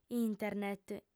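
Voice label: "internet", female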